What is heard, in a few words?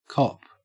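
"cop", Australian English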